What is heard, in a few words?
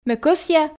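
Komi, female; ме кӧсъя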